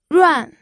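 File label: "ruan4", Mandarin Chinese pinyin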